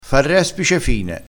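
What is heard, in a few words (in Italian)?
"respice fine", vocabolario dialettale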